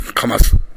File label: "kamasu", minamiyamagata